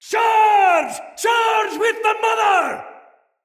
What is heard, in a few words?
Turned Tides Afar, Soldier Male; Dendrit